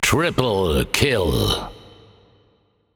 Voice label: Announcer German Spree Classic 3 Kills